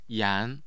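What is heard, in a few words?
"yan2", Mandarin Chinese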